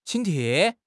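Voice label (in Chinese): "请帖", Chinese; HSK6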